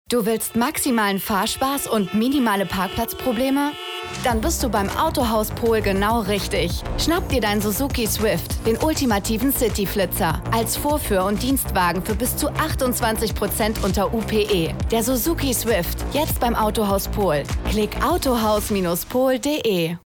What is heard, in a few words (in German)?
Radiospot Autohaus; Autohaus Polhl; Suzuki